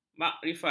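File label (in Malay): "makrifat", Malay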